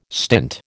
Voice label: "stint", American